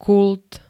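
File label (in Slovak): "kult", Slovak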